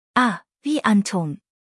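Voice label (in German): "anton", German; buchstabierung alt; A wie Anton; Buchstabieren Sie bitte!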